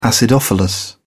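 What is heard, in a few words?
"acidophilus", British English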